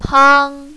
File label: "pang1", mandarin, female